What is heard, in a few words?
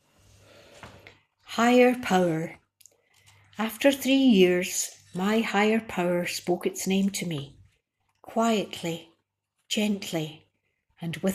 Female Audio